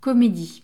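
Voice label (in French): "comedie", French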